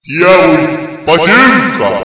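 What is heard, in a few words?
godlike, Lithuanian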